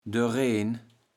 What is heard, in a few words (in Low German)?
"doereen", kaevels platt